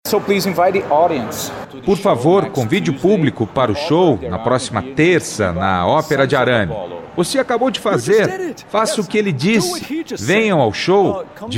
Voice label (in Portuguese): ENTREVISTA EX GUITARRISTA